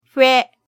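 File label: "fe", Japanese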